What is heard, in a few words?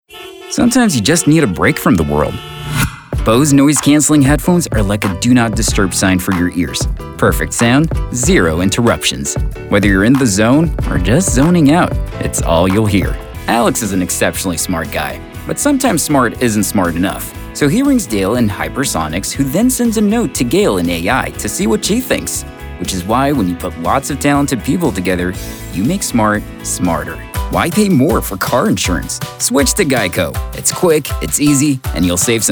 Commercial